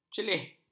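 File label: "celih", Malay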